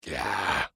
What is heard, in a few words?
Drek'ar Emote Negative